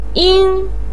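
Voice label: "ying", Mandarin pinyin, first tone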